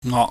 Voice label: "ngok", pingyam

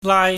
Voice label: "laai", chinese

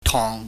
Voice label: "tong", Chinese

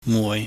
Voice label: "mui", Chinese

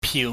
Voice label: "piu", Chinese

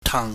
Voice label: "tang", Chinese

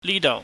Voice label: "nidou", Cantonese

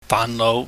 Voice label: "faahnnouh", Cantonese

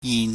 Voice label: "yin", pingyam

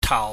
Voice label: "tau", Chinese

